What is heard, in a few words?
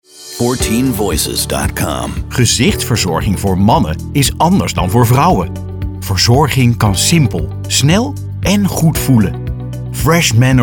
Commercials; 14Voices